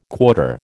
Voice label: "quarter", American